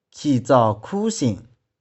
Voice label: "khi2tsau2khu1shing1", 玉環

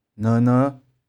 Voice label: "no1no5", 玉環

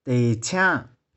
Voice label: "te3chiang6", 玉環

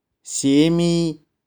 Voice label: "shie1mi3", 玉環

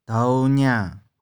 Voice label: "dou3niang1", 玉環

